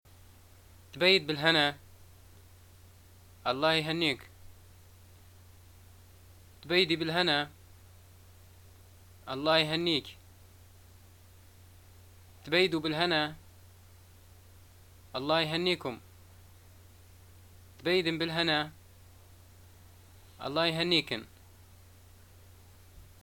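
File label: "greeting new things", Libyan Arabic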